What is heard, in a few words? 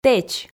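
"тэч", Komi, female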